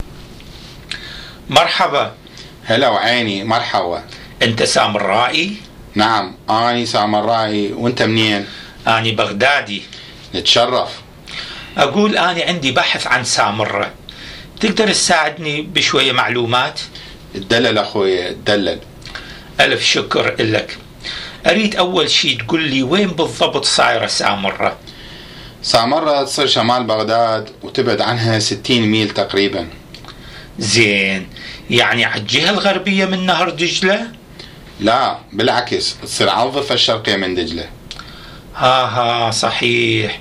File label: Dialogue, Iraqi Dialect; about Samarra City